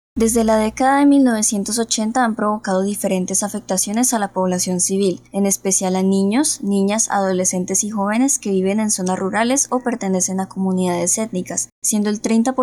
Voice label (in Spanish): Narradora; casting trineo tv